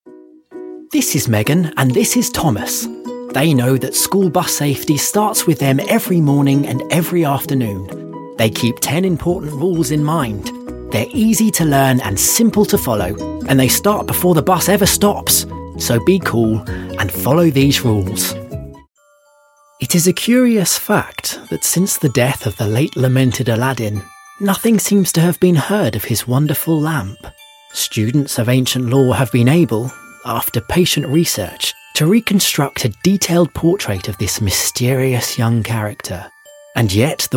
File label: documentary